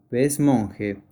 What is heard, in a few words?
Monkfish spanish